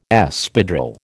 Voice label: "Espadrille", American